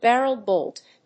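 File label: "barrel bolt", English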